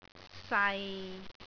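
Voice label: "sai6", Cantonese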